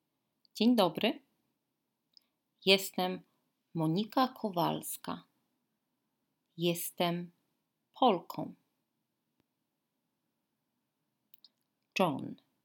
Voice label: dialogue, Polish language